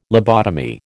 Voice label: "lobotomy", American

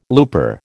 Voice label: "looper", American

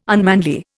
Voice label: "unmanly", Indian

